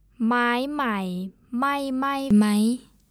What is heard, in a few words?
mai, thai language; Mai^ -- high tone Mai' -- low tone Mai" -- falling tone Mai+ -- rising tone; New Wood Doesn't Burn, Does it?; Wood Not Burnt; The first Mai" --- means not, and then 2nd Mai" is burn